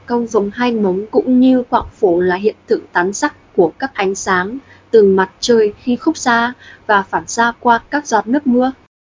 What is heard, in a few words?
speaker, vi VN; piper voices; 25hours single